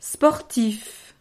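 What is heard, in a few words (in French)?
"sportif", French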